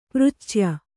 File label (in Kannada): "ಪೃಚ್ಛ್ಯ", Kannada